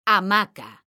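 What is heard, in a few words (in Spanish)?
"hamaca", Spanish, female; mekanta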